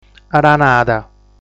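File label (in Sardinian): "Aranada", Sardinian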